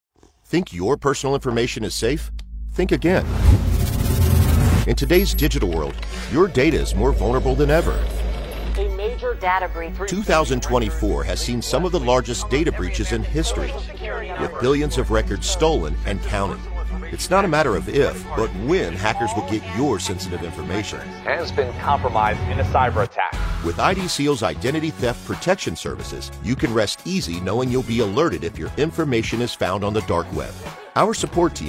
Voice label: Conversational male voice, Political Spots, Clear And Confident Political Spot Voice; This Demo Showcases A Clear And Confident Political Spot Voice Suitable; IDSeal Identity Theft